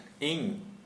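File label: I, Santali, male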